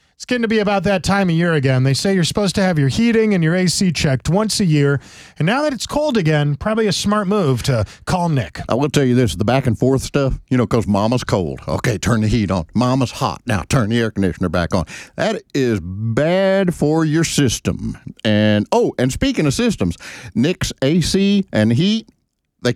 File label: RADIO AD; nicks plumbing radio ads